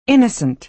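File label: "innocent", American English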